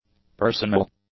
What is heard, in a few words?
"personal", english; adjectives